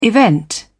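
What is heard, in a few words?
"event", British English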